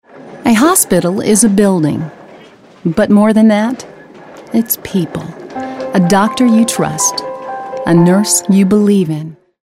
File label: caring concern